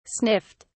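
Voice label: "sniffed", British English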